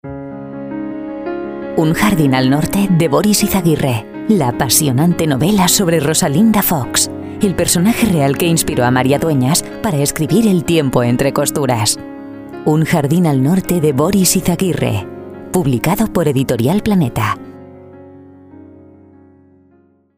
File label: Spanish Commercial Emotional